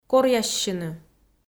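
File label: "коръяссьыны" Komi, female; audio dict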